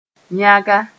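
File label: "yãga", Mossi; messy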